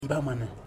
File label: "fish", Ipili